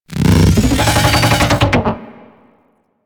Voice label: Jackal Redux Attack, Enemies, Barks; Warframe; Corpus